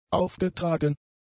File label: "aufgetragen", German; adjectives